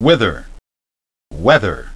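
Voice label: "whither" "whether", English pronunciation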